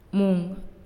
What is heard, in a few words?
"Night", Naasioi